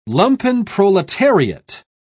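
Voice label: "lumpenproletariat", British English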